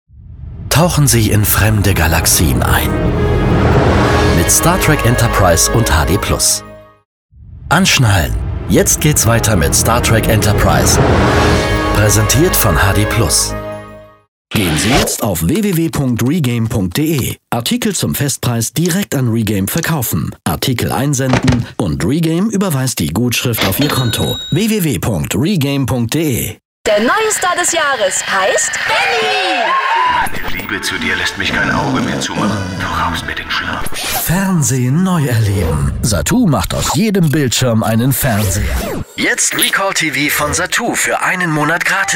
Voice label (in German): tv werbung national